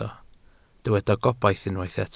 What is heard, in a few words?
"gobaith", Welsh